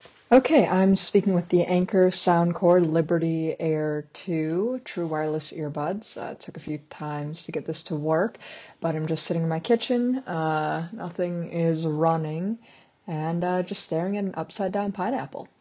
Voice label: Anker SoundCore Liberty Air 2 microphone

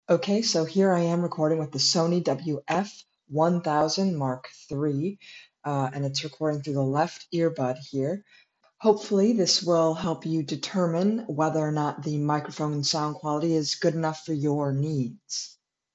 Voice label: Sony WF1000XM3 microphone

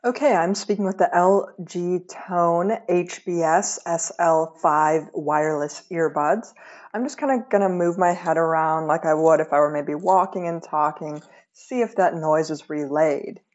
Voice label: LG Tone Style HBS SL5 microphone

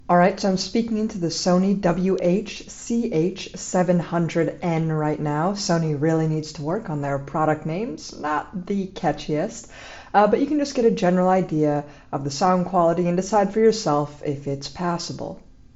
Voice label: Sony WH CH700N microphone

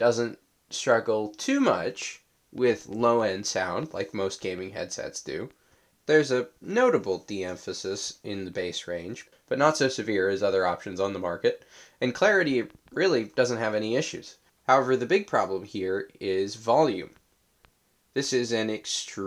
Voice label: Turtle Beach Recon 200 mic sample

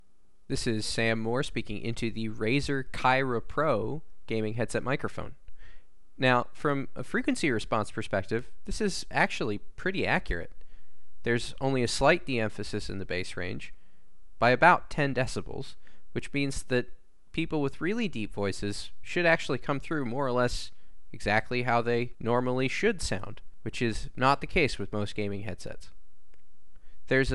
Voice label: Kaira Pro Mic sample

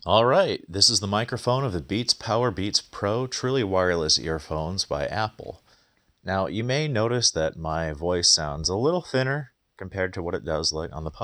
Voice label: mic sample; beats powerbeats pro

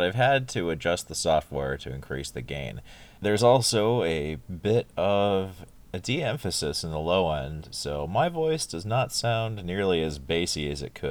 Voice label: sennheiser game one mic sample

